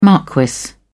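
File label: "marquis", British English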